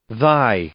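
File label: "thy", English, male; adjective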